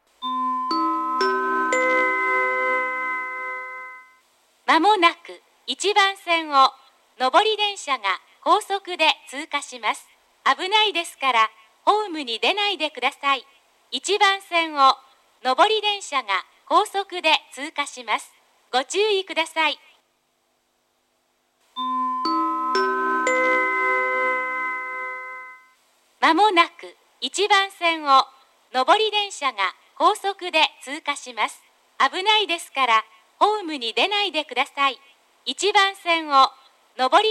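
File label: platform 1 up approaching pass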